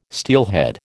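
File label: "steelhead", American English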